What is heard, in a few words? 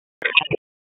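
Dispatch 1, P25; WSO DISP 1; Wake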